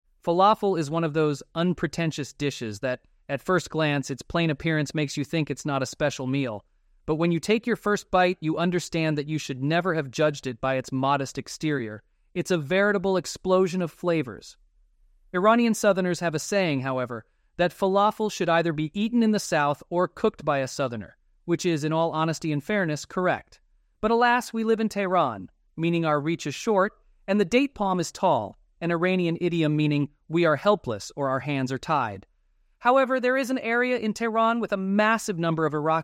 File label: synthesized audio